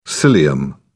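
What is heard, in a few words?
"cilium", British English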